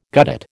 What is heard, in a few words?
"guttate", American